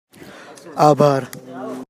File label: Thankyou Gujarati